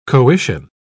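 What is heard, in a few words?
"coition", British English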